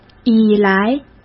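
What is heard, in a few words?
"以来", Mandarin; chinese flashcards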